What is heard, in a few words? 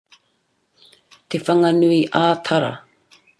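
"te whanganui a tara", Māori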